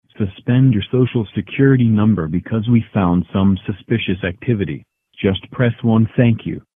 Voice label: robo JUST PRESS ONE THANK YOU